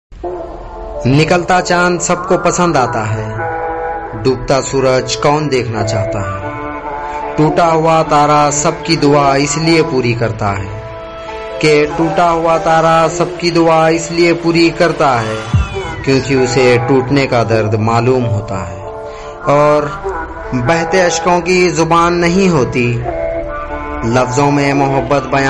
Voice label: Shayari Hindi Ringtone